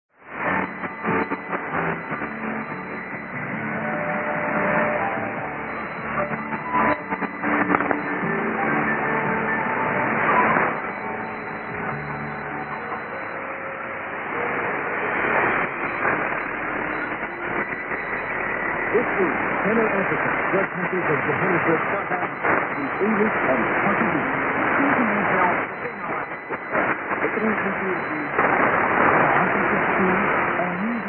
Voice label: 3345kHz; Channel Africa

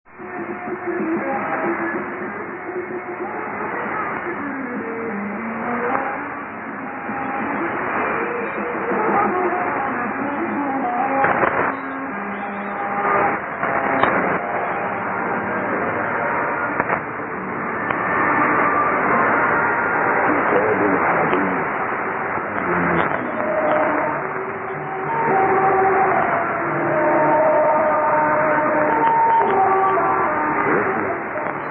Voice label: 6290kHz Egyptian Radio

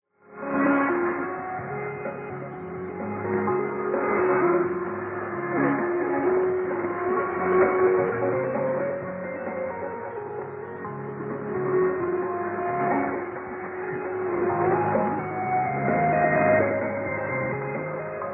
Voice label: 6049.6kHz; Asyik FM via RTM

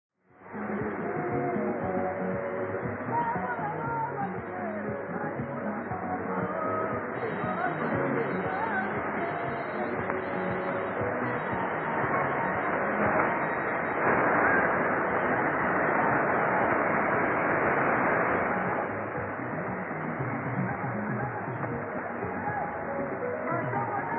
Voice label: 6250kHz Radio Nacional; Malabo

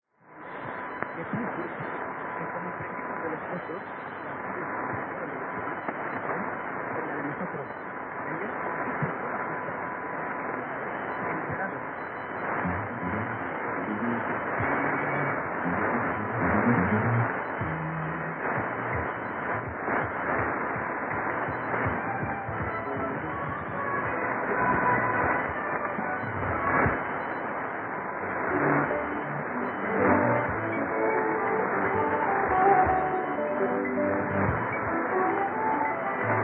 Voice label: 5005kHz Radio Nacional; Bata

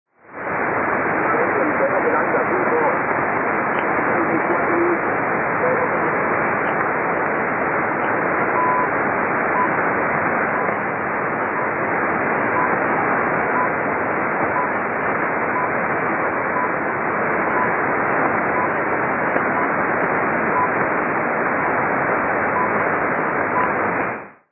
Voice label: 3810kHz LSB; エクアドル

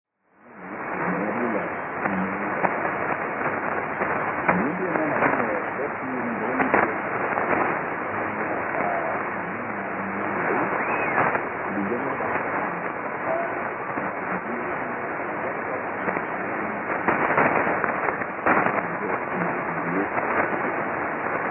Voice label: 6250kHz; Radio Malabo

